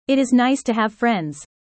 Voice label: user fast